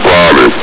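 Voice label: "private", male; hl2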